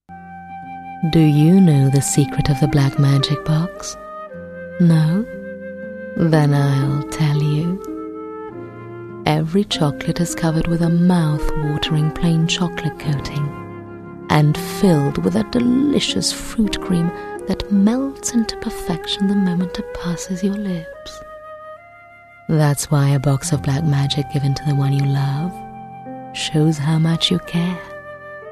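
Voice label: Italian, English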